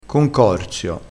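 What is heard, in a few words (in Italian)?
"concorsio", dialettale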